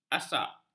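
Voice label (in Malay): "asak", Malay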